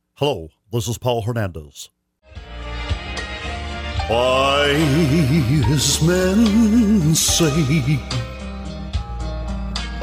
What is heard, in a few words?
Elvis Presley Impression